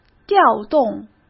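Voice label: "调动", Mandarin Chinese; chinese-flashcards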